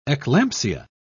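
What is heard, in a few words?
"eclampsia", British English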